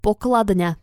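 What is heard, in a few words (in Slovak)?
"pokladna", Slovak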